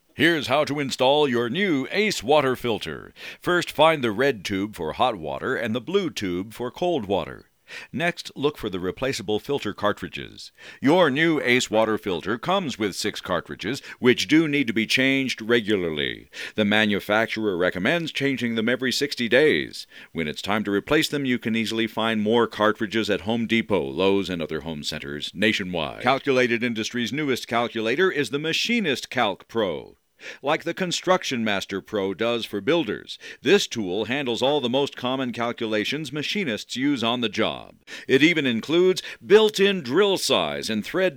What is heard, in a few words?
Voiceover Industrial Narration; Voiceover Specialty Applications